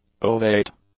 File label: "ovate", English; adjectives